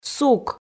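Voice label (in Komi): "сук", Komi, female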